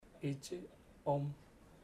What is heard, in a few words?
it is good, chamacoco; Bahia Negra